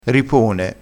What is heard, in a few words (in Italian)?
"ripone", crespinese